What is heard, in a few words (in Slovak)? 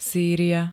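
"Sýria", Slovak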